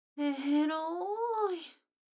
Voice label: 女の子台詞

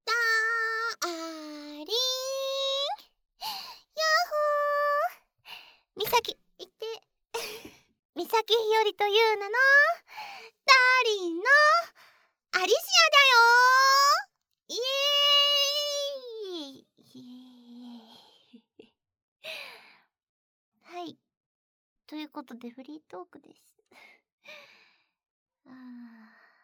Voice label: フリートーク, ASMR; サキュバスちゃんの精液搾り～アリシアとずっと遊ぼうね？～

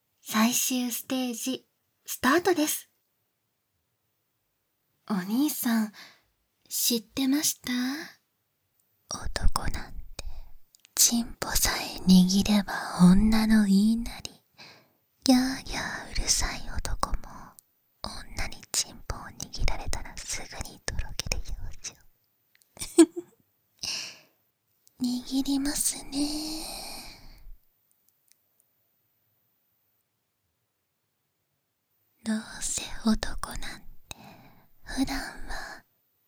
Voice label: クチュ無し 耳舐めと淫語で男の我慢, ASMR; ファイナルステージ

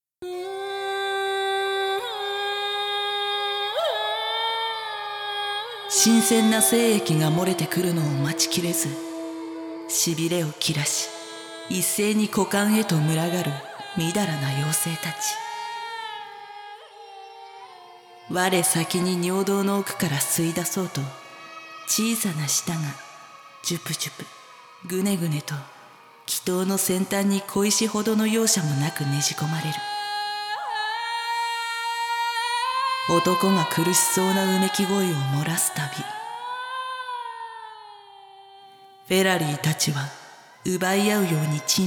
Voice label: ナレーション; 淫妖精の森

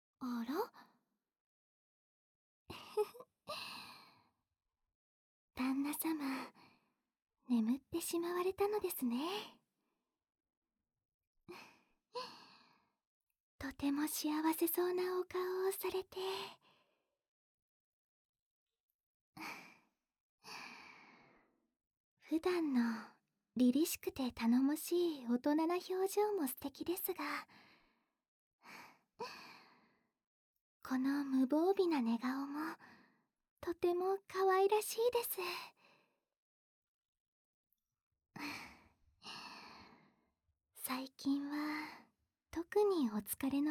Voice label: おやすみなさいませ、旦那さま, ASMR

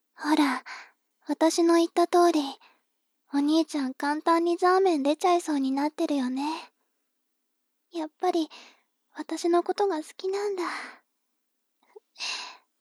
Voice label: 妹 台詞

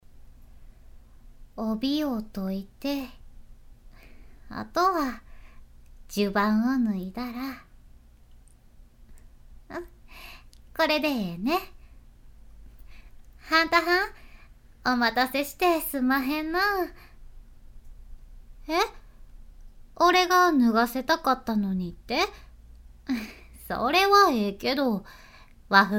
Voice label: ボイスのみ; 和風わん娘

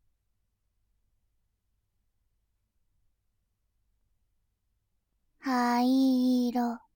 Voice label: 「灰色」, ASMR

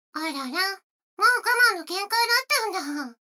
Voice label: 女の子 台詞, ASMR

